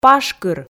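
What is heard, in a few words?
"пашкыр", Komi, female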